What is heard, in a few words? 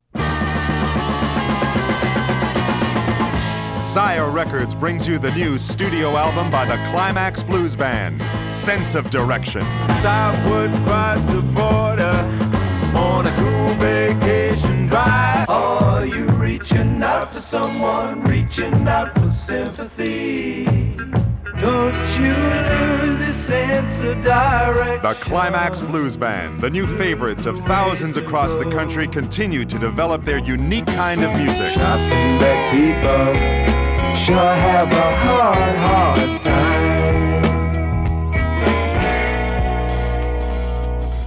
Radio Commercials; 1974